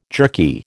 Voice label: "jerky", American